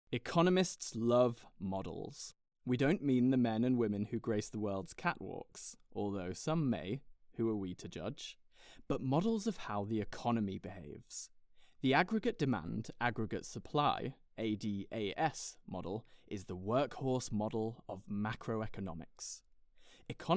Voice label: Audiobook